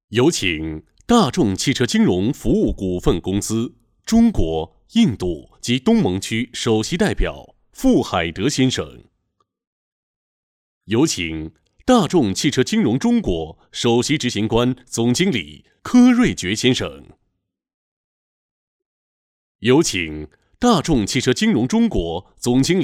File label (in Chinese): 配音 汽车金融中国年会; 大众汽车金融中国年会